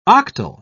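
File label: "octal", English, British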